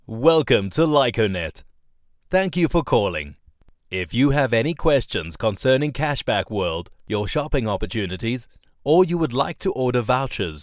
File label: english south african ivr